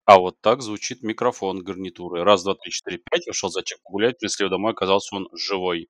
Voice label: mic test